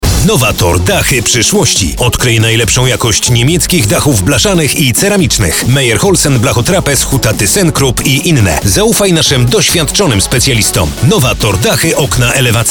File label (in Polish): Spot reklamowy; Novator Dachy przyszłości